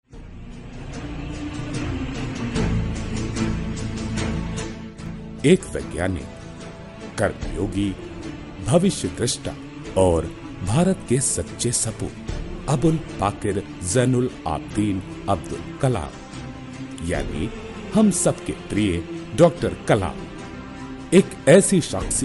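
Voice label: narration, Hindi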